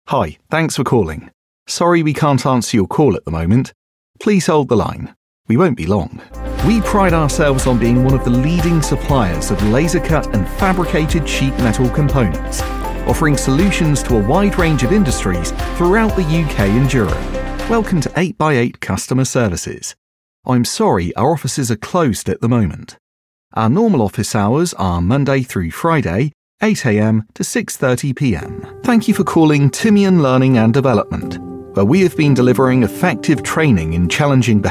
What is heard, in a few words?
Telephone